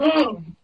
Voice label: jibjab FREAK, Lo-Fi Jibber Jabber, VOCALS and SPOKEN WORD